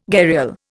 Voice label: "gharial", Indian